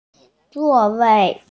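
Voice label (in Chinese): "zuo4wei4", Mandarin Chinese, female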